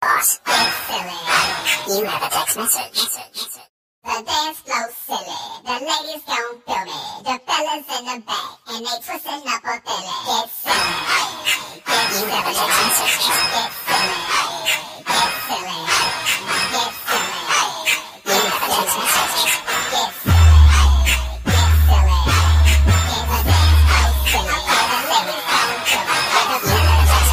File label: Chipmunks Get Silly